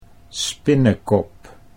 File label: "spinnekop", Dutch; 'spiderhead'